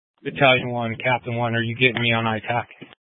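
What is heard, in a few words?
Incident Tactical 1, Scanner audio recording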